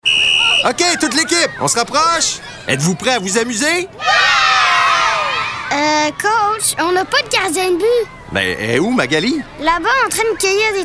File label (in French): Fr National; JWT Huddle Up